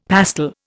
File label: "pastel", Indian; Dictionary